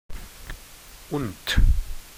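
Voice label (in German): "und", German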